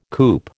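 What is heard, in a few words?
"coop", American English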